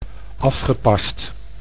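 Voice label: "afgepast", Dutch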